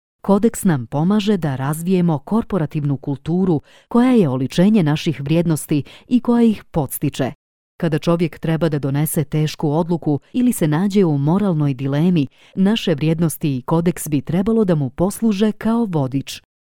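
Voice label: Montenegrin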